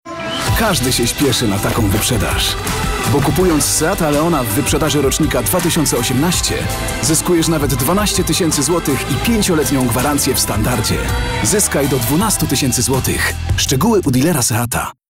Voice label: male polish tv commercial; seat